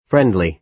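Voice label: "friendly", American English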